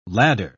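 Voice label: "ladder", English word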